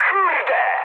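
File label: trick mood high, speech Polish; War Thunder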